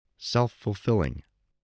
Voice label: "self-fulfilling", english spelling